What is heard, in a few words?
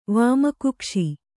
"ವಾಮಕುಕ್ಷಿ", Kannada